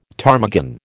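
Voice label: "ptarmigan", American English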